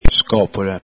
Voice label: "scopola", Veneto